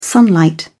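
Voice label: "sunlight", English, uk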